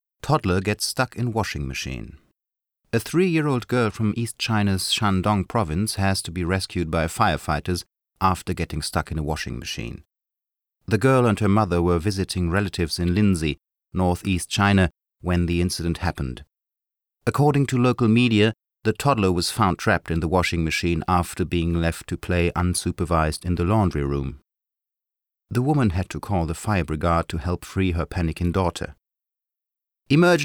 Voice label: englisch

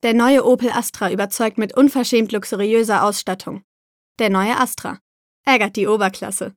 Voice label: casting Werbung Opel

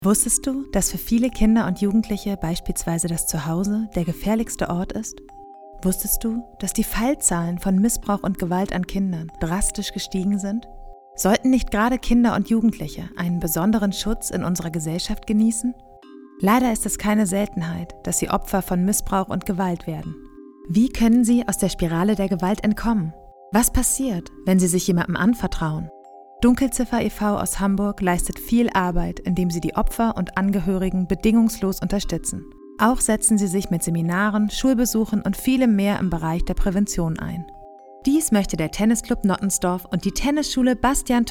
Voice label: Spendentunier VoiceOver Werbefilm; Dunkelziffer e.v.

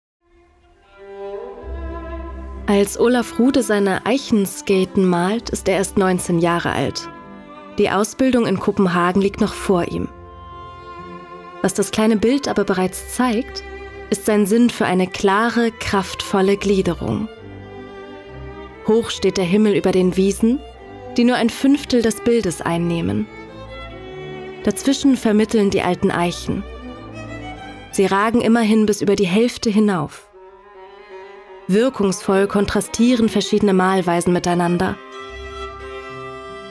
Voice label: Audioguide Musik

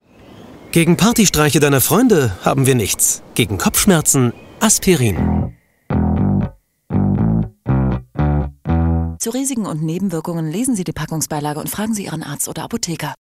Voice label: Werbung TV, Commercial, German; Aspirin

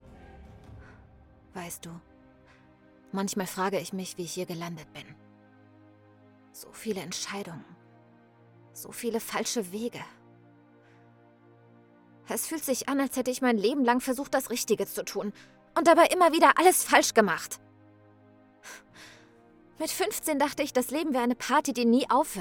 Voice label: Monolog Mutter, Hörspiel Drama, Audio Drama, German

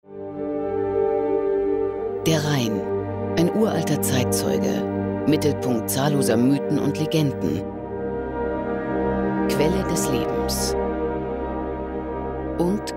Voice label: doku rheingold

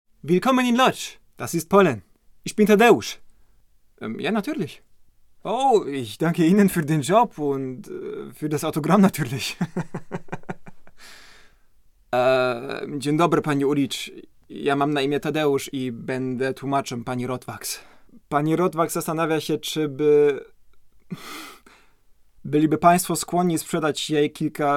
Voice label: Deutsch Polnisch, Lip-Sync (Synchron); German, Polish; voice

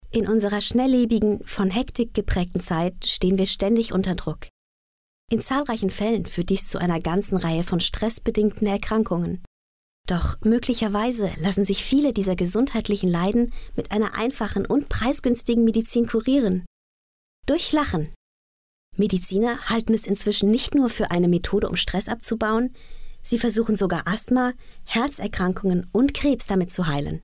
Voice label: reportage lachen; LOFT VOICES

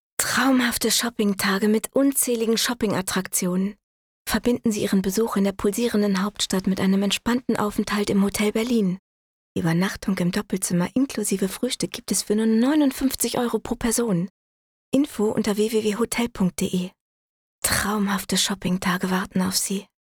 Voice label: Teleshopping; LOFT VOICES

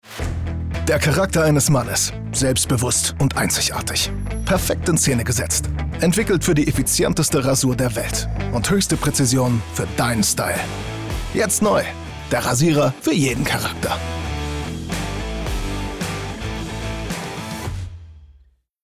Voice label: Werbung Rasierer, Commercial, German; Mittel minus (25-45)

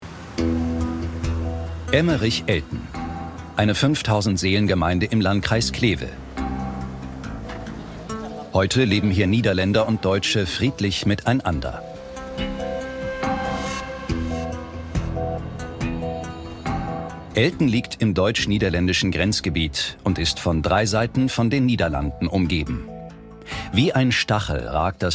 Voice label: Doku Kommentar Weltmächte

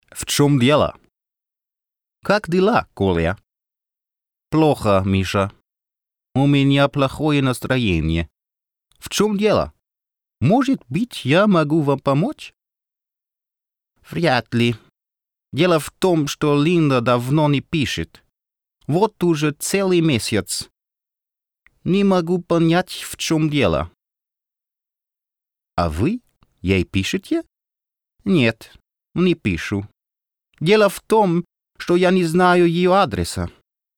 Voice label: russisch

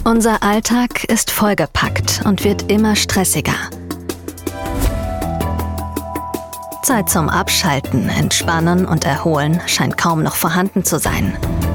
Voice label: Teaser TV Sendung

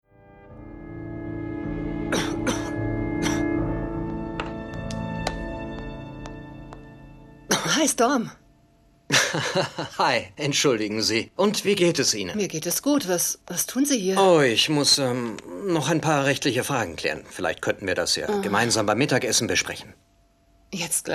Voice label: synchron dialog heiter; LOFT VOICES

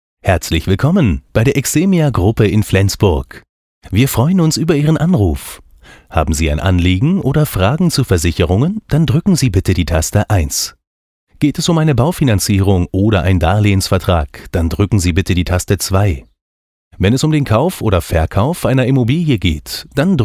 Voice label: Telefon Sprecher

